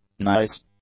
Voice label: "nice", english; adjectives